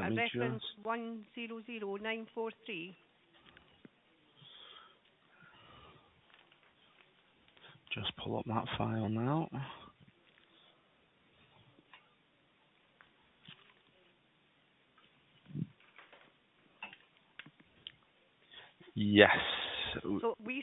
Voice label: call recording